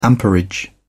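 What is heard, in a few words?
"amperage", English, British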